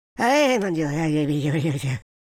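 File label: "pray", male villain voice; speech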